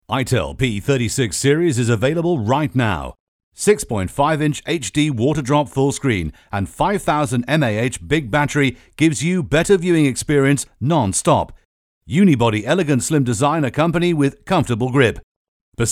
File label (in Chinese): 外籍英语英式广告